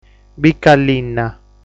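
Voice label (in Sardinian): "Bica Linna", Sardinian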